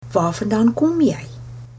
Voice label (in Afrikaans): where from, afrikaans